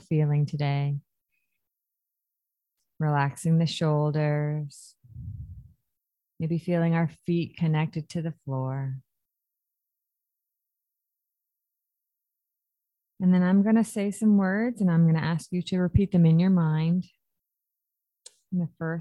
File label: Meditation, Mantra; Happiness Mantra